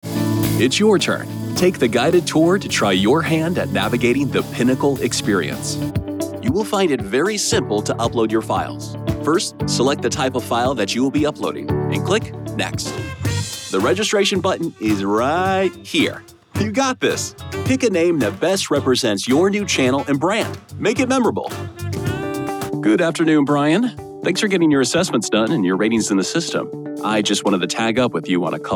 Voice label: ELearning